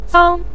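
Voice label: "zang" neutral tone, Mandarin Chinese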